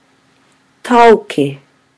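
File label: "taoke", Māori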